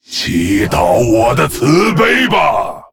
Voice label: Male Lich; TRIGGER Frostbolt; zh